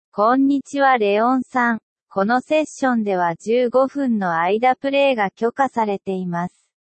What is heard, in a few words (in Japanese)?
speech sample ja